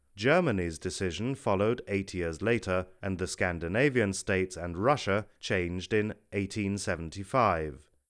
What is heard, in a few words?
Unprocessed Male Speech